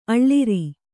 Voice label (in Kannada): "ಅಳುರ್", Kannada